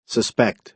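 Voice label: "suspect", American English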